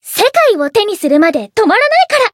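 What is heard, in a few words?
win, Japanese; tsukiha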